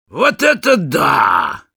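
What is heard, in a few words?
positive vocalization, russian; Вот это да!; Sniper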